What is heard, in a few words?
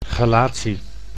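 "chelatie", Dutch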